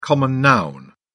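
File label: "common noun", British English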